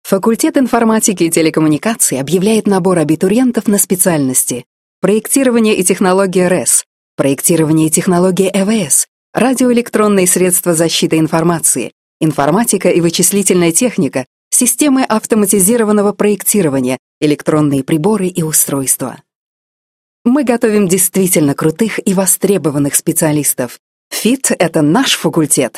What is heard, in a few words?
russian british female